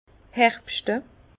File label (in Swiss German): "herbschte", Bas Rhin; vin; vendanger